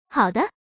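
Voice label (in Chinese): "haode", Chinese; Xiaowei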